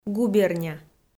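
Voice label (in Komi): "губерня", Komi, female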